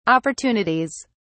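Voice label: "opportunities", British English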